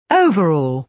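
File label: "overall", British English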